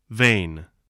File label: "vain", English